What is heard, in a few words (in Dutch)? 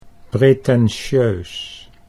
"pretentieus", Dutch